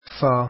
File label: arabic alphabet faa